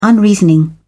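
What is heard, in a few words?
"unreasoning", British English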